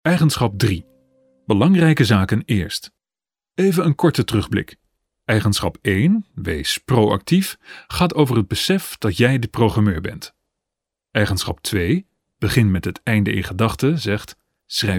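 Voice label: Dutch, male, corporate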